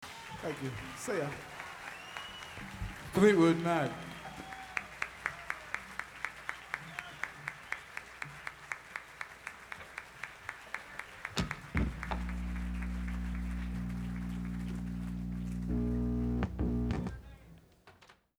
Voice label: says thanks, announcer outro + crowd noise; 1968 SF, Carousel Ballroom